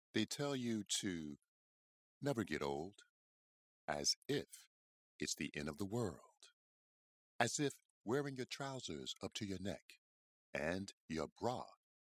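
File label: US English, commercial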